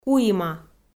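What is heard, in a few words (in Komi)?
"куима", Komi-Zyrian, female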